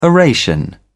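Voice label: "oration", English, British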